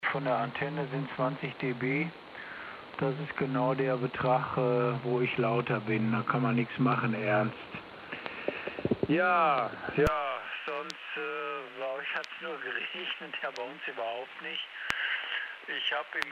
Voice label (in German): Kurzwelle SSB 40m Amateur